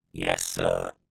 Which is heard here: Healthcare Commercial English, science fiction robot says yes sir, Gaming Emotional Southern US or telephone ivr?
science fiction robot says yes sir